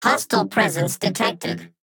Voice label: Hostile presence detected, Sci-Fi Voices Advanced Android; CB Sounddesign; Sci-Fi Voices Volume 03